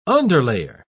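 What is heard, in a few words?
"underlayer", English, British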